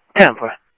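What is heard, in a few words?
Sheriff Lake Patrol, scanner audio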